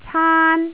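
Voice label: "caan1", Cantonese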